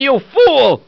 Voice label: "you fool", male

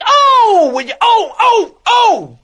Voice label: "oh with your oh oh oh", Voice; ooh ahh

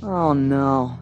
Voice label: "oh no", male; ooh ahh

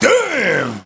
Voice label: "damn", expletives

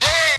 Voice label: "hey" male

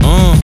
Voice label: "uh", male

